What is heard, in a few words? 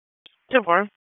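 Police Southwest District Dispatch, p25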